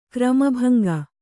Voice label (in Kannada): "ಕ್ರಮಭಂಗ", Kannada